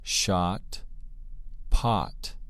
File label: "shot" "pot"